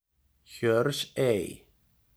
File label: Hjörsey pronunciation; Хьёрсей; исландское произношение: [ˈçœr̥sˌeiː]